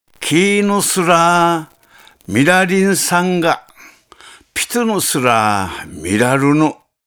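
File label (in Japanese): Ishigaki Hirae, しまくとぅば; キーヌ シュラー ミーラリンサンガ; ピトゥヌ シュラー ラルヌ。; 八重山石垣平得の言葉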